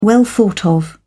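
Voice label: "well thought of", British English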